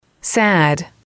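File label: "sad", English, female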